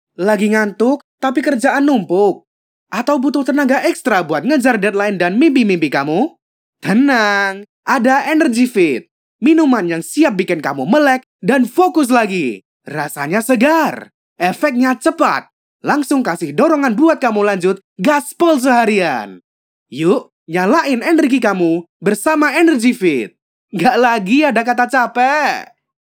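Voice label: CASUAL Indonesian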